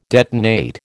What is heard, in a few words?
"detonate", American